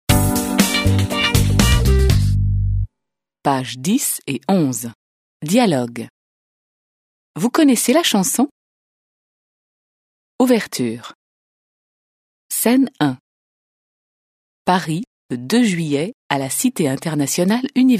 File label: French